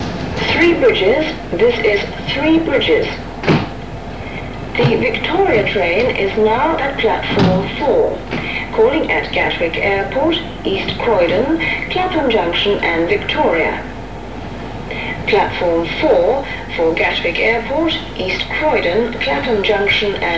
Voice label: pre recorded platform announcement; three bridges

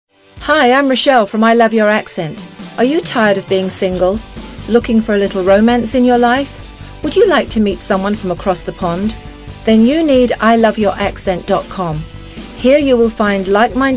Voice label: radio ad

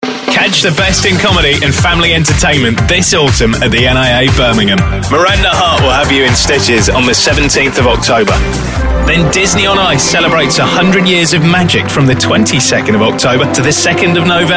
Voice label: radio commercial

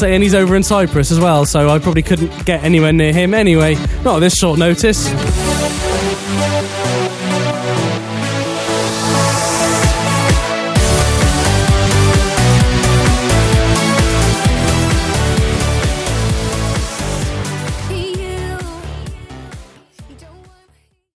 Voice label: radio dance link

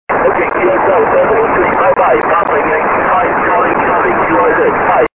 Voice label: 6m ssb

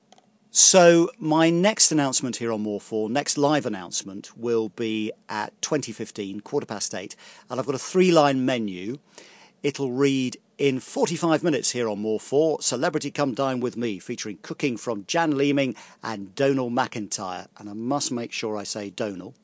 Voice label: continuity announcer; more4

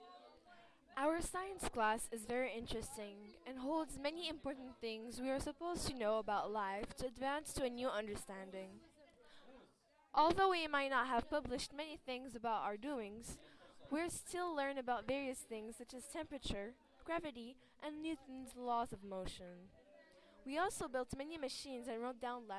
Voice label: voice note; integrated science

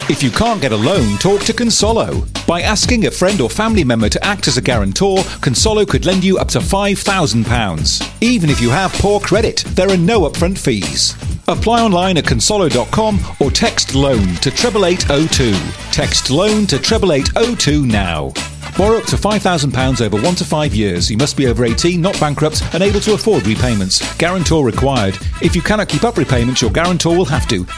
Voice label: radio advert

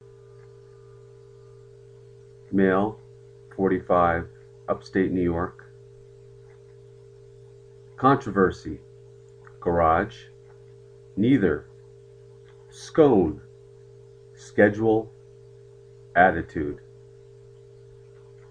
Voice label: 6 words, male, grew up in hudson new york area; born 1965

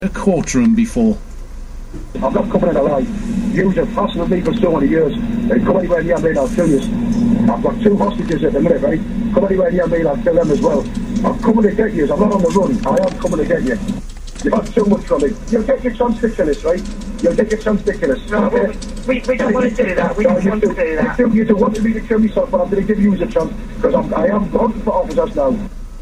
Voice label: 999 call; raoul moat

